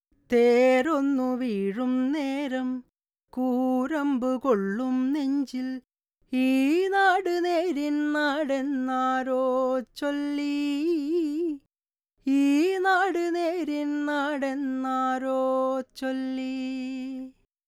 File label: 80 BPM Male